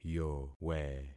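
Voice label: "yowe", concatenated syllables; Affixing experiment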